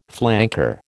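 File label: "flanker", American English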